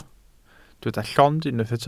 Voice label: "llond", Welsh